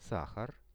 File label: sahar, Russian; products